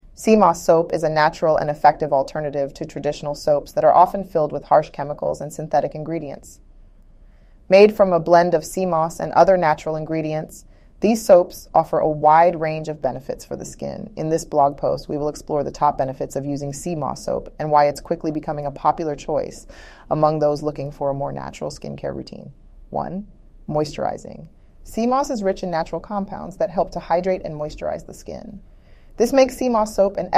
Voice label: synthesized audio